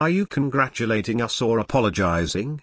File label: Male Night Elf; Kurtrus; Scabbs